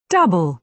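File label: "double", British English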